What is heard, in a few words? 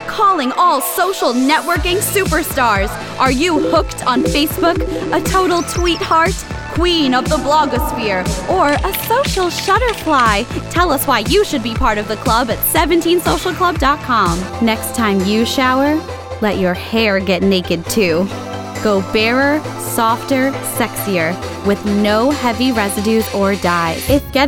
commercial